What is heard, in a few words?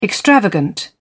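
"extravagant", British English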